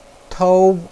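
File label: "tou1", Chinese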